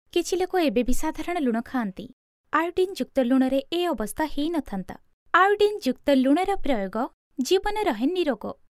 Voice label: Oriya female